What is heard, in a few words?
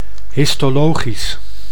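"histologisch", Dutch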